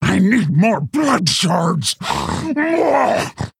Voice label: Female Quilboar; Sangtusk; Kurtrus

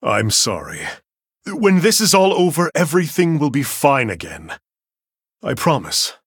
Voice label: Male Human Victory; Arthas; Jaina

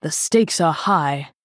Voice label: Female Night Elf Thinking; Elise

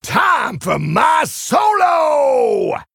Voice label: Male Tauren Hero Power

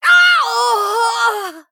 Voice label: Female Draenei Death

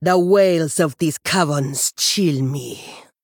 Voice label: Female Troll

